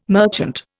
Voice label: "merchant", Indian

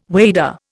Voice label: "wader", Indian

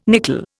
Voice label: "nickel", Indian

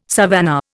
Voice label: "savannah", Indian

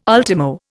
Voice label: "ultimo", Indian

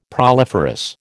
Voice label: "proliferous", American

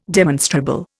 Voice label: "demonstrable", Indian

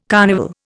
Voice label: "carnival", Indian

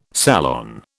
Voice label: "salon", British

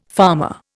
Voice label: "farmer", Indian

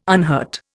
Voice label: "unhurt", Indian

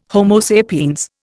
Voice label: "Homo sapiens", Indian

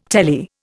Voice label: "telly", Indian

